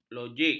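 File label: "logik", Malay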